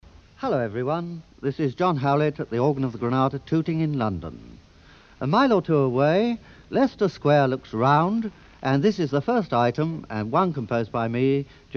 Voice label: Tooting Announcement